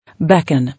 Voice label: "Beckon", English

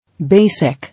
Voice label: "Basic", English

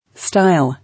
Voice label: "Style", English

